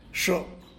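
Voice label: "six", Tlacolula Zapotec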